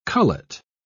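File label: "cullet", British English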